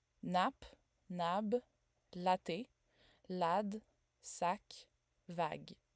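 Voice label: French a stop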